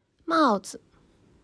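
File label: "帽子", Chinese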